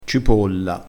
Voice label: "cipolla", crespinese

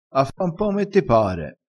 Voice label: "ha fa", crespinese

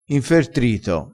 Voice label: "infertrito", crespinese

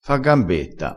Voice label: "fa gambetta", crespinese

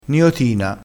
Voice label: "niotina", crespinese

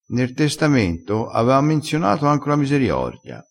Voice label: "menziona", crespinese

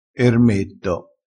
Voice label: "ermetto", vocabolario dialettale

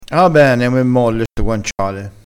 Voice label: "molle", crespinese

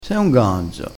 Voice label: "ganzo", crespinese

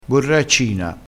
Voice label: "borraccina", crespinese

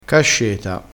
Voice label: "casceta", crespinese

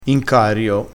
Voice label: "incario", crespinese

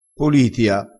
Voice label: "politia", crespinese, vocabolario dialettale

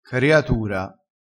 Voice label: "cariatura", crespinese

